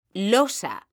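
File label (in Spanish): "LOSA" Spanish, female; mekanta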